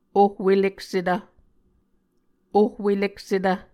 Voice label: "ohweleksetv", Muscogee

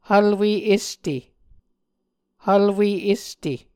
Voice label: "hvlwe este", Muscogee

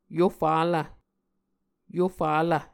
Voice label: "Yofalv", Muscogee

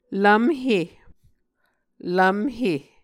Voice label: "lvmhe", Muscogee

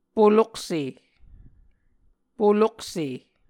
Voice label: "polokse", Muscogee

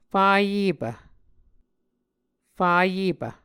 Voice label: "fayepv", muscogee

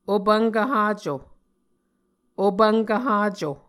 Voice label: "opvnkv haco", Muscogee

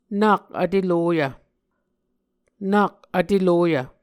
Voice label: "nak vteloyv", muscogee

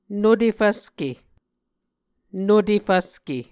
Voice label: "nute fvske", Muscogee

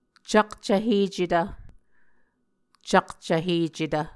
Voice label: "cakcvhecetv", muscogee

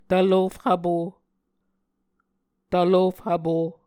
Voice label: "tvlof hvpo", Muscogee

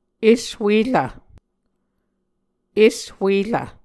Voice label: "eshuerv", Muscogee

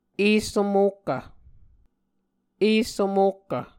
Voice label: "esso mokkv", Muscogee; Mvskoke Words with Repeated Consonants; Onvkv Ohhvyayices; A Dictionary of Creek/Muskogee; SIL International

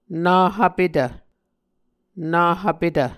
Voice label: "nahvpetv", Muscogee

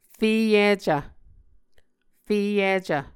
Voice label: "feyicv", muscogee

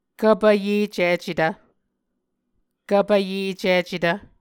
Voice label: "kvpvyecicetv", Muscogee; Mvskoke Words with Voicing Consonants; A Dictionary of Creek/Muskogee; SIL International; Onvkv Ohhvyayices

